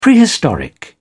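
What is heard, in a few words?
"prehistoric", English, British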